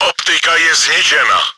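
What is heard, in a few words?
surveying devices crit damaged, чешской озвучки; Optika je zničena.; Czech; Повреждение/ремонт приборов наблюдения; World of Tanks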